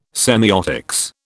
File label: "semiotics", British